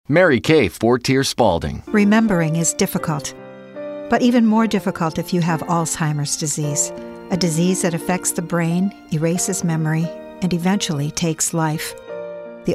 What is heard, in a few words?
narrative